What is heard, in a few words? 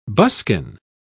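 "buskin", British English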